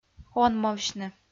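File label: "онмовсьны", Komi-Zyrian, female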